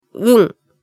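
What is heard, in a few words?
"n", Japanese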